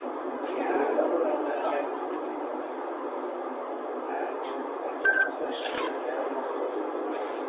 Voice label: more whispering

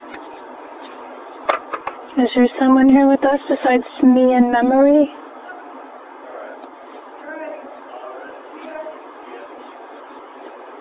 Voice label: male female voices saying yes

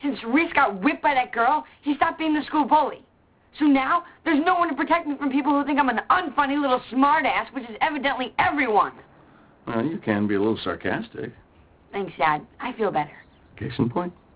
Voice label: sarcastic

